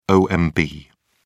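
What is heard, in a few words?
"omb", English, British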